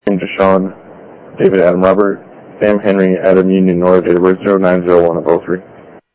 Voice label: Interop Mutual Aid, scanner audio